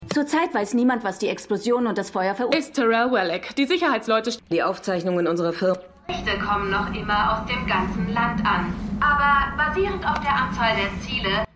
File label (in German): Nachrichtensprecherin, Nachrichtensprecherin; Laurie Segall